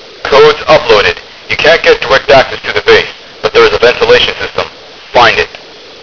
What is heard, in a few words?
radio, grunts; svencoop